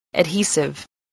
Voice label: "adhesive", English voice